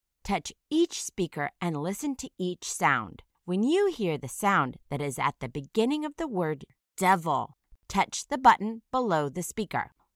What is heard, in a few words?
"devil", initial phoneme isolation